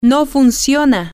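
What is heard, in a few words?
Spanish, female; it doesn't work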